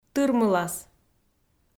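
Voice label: "тырмылас", Komi, female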